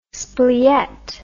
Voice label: "spljet", English

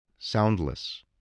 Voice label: "soundless", English voice

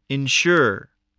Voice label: "ensure", voice, english

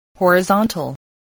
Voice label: "horizontal", voice spelling

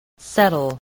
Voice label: "settle", spelling

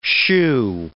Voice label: "shoe", English

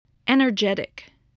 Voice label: "energetic", spelling

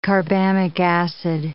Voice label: "carbamic acid", English

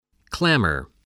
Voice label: "clamor", English, voice

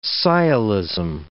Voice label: "sciolism", English

